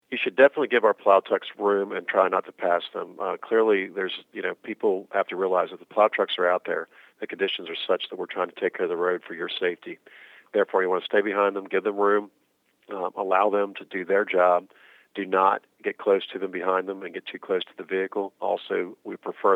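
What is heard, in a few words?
winter driving, radio; penndot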